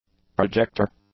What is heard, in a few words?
"projector", English; nouns